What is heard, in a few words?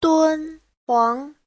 "dun1 huang2", chinese; 敦煌; Đôn Hoàng